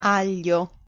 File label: "aglio", Italian pronunciation